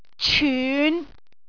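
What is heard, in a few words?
"cyun5", Cantonese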